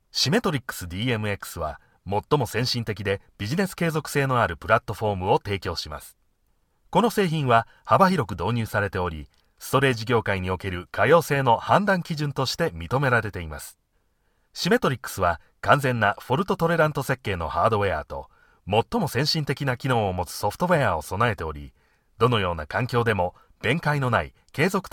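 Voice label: Japanese narration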